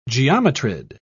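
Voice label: "geometrid", British English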